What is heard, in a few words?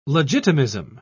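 "legitimism", British English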